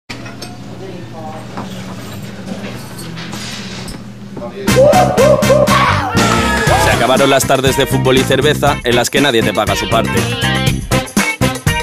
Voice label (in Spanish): Anuncio castellano; Ealia